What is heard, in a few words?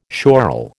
"schorl", American